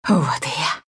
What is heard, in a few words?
attack, ru; dota2